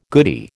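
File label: "goodie", American English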